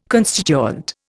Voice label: "constituent", Indian

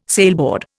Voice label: "sailboard", Indian

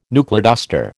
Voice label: "knuckleduster", American

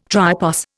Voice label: "tripos", Indian

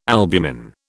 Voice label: "albumin", British

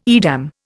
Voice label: "Edam", Indian

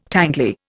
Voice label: "tangly", Indian

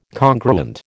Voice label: "congruent", American

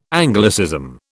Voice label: "Anglicism", British

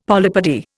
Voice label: "polypody", Indian; Dictionary

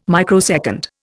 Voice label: "microsecond", Indian

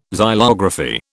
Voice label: "xylography", British

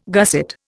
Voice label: "gusset", Indian

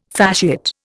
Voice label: "fasciate", Indian

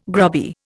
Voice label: "grubby", Indian

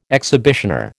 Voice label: "exhibitioner", American

